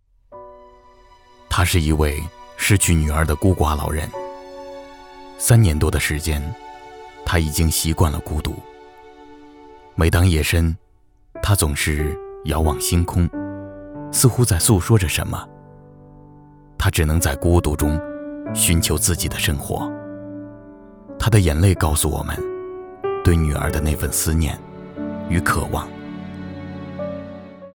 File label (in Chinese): 男 纪录片 公益旁白